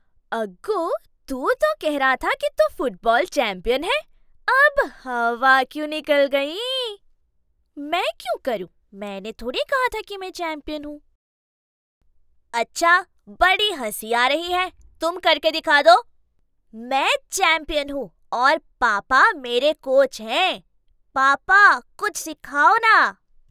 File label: Hindi Conversational